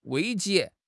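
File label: "危机", Mandarin Chinese; HSK6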